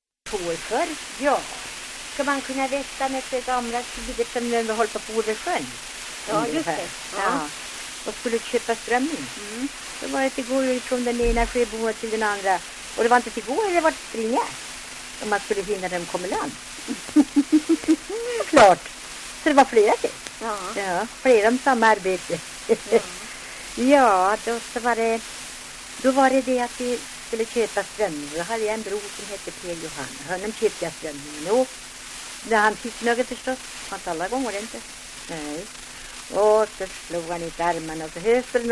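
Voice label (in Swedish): Älvkarleby Uppland, svenska sagor dialekter; Folkminnen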